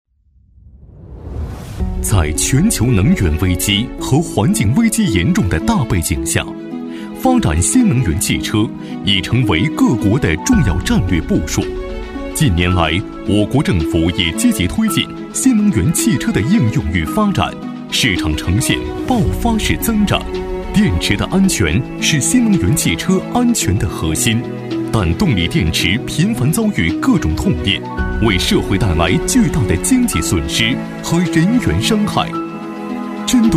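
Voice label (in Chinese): 男国大音-企业宣传片; 新能源汽车电池Zephyr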